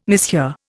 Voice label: "mishear", Indian